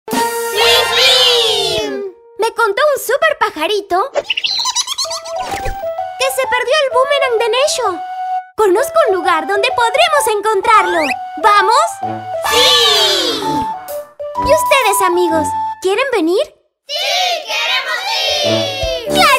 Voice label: Animation